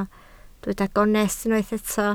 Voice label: "gonest", Welsh